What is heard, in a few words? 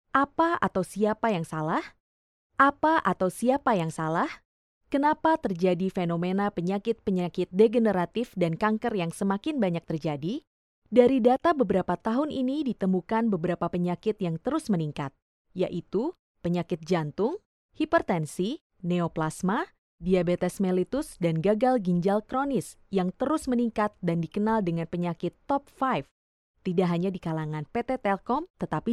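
Indonesian, General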